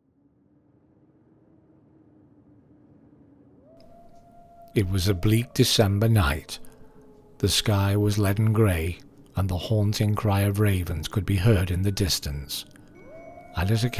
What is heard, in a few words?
Horror